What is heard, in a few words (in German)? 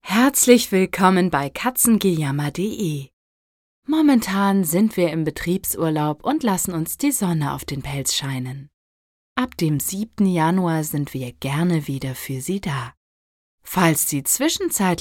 Telefonansage